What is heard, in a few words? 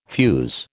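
"fuze", American English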